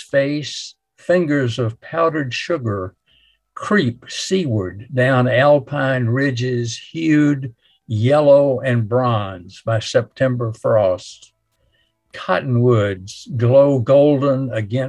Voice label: Poem; Bone Music